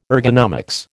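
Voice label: "ergonomics", American English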